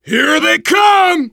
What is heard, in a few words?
incoming, voice, biker; Left 4 Dead 2; survivor